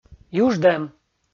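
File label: "юждӧм", Komi, male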